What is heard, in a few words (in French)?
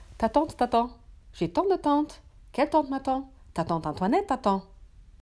virelangue